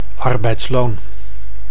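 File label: "arbeidsloon", Dutch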